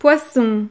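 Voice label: "poisson", French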